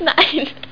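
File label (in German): "nein", German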